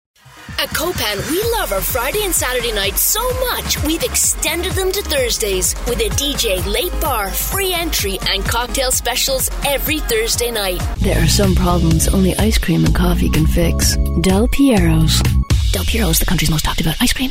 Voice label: mid atlantic female commercial

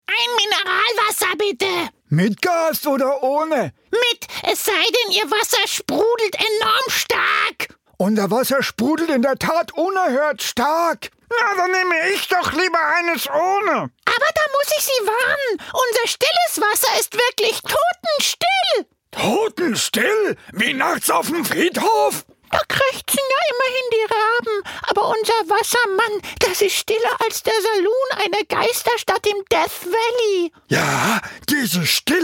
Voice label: german voice acting

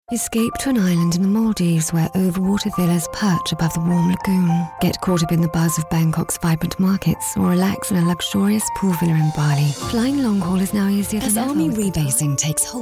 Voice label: commercial showreel

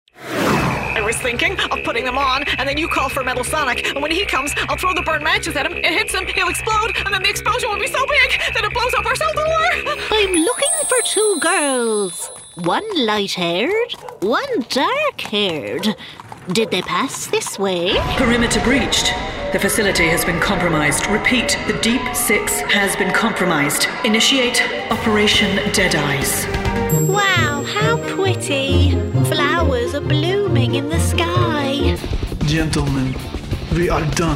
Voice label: northern irish, character

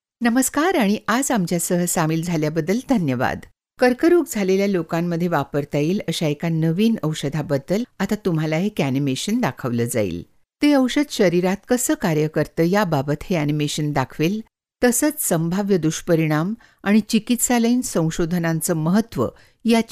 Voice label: corporate

